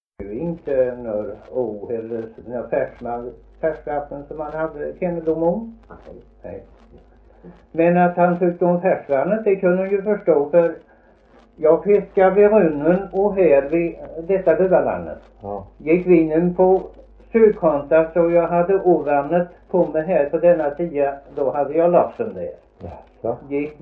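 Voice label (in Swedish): Värö Halland, Svenska sagor dialekter; Folkminnen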